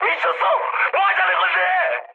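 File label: Korean player killed ally mood high, speech; War Thunder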